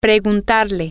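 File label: toAskHimHerYou, Spanish, female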